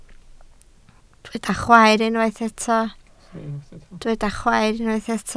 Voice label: "chwaer", Welsh